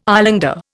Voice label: "islander", Indian